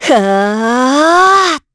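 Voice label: Vox Casting, Korean; heroes; Isolet; kingsraid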